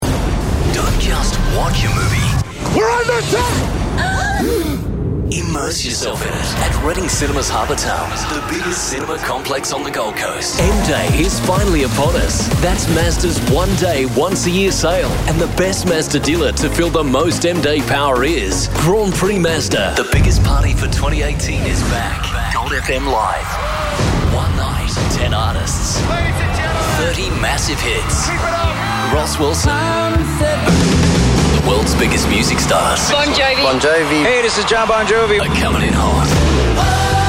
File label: English Australian movie trailers